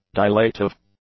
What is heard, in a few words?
"dilative", english; adjectives